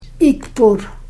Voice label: "ikpur", Karuk